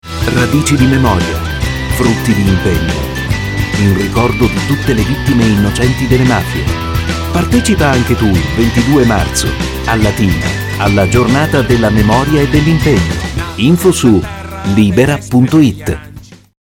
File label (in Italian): Spot radio; libera 2014